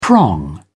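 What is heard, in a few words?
"prong", British English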